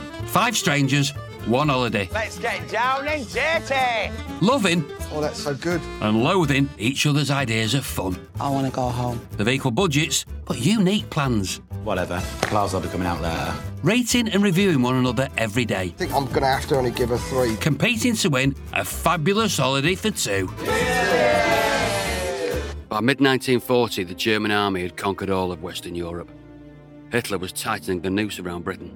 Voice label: Narration